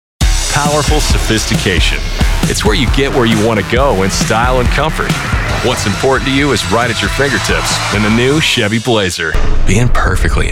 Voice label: Commercial

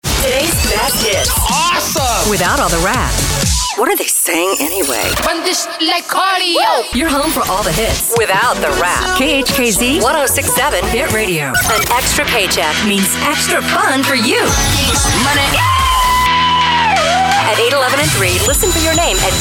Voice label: Imaging

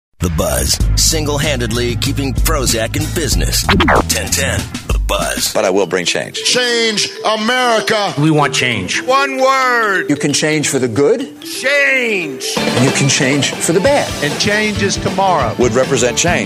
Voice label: vo news

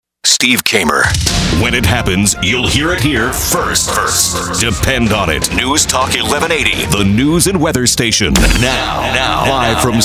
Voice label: newstalk

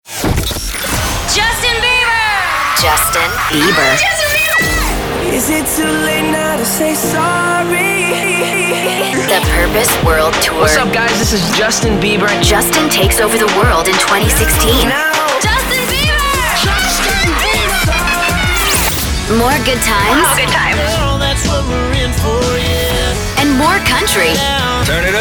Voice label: English Overall Imaging

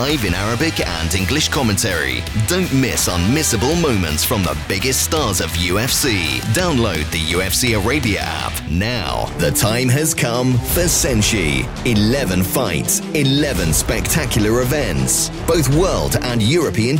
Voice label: Sports Promos

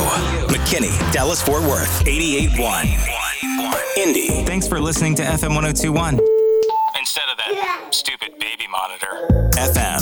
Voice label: Radio Imaging

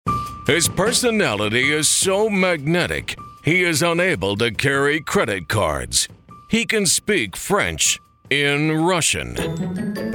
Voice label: ANNOUNCER HEAD

